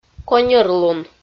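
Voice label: "коньӧрлун", Komi, female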